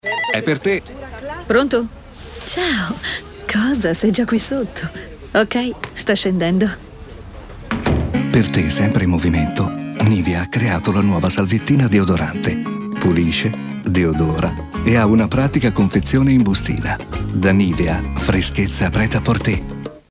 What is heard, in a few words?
Spot; nivea salviettine